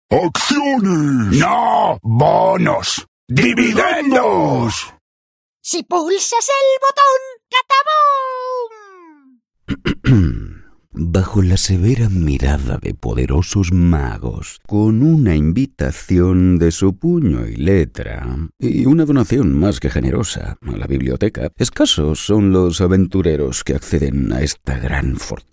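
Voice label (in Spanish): otros España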